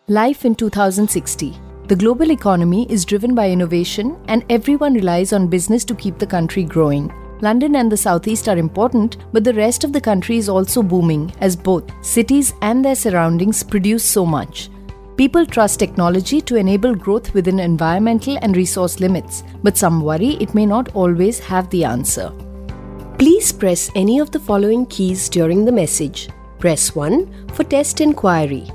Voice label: indian accent